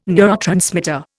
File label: "neurotransmitter", Indian